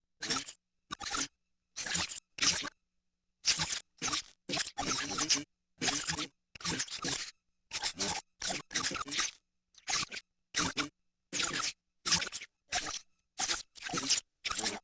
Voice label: The Hive, Vocals Humanoid; Sony Pictures Sound Effects Series Volumes 1-10; Sony Creative Software